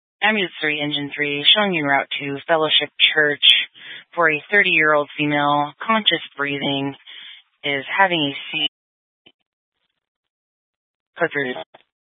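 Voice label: Fire Dispatch, Scanner audio recording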